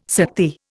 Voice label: "Sakti", Indian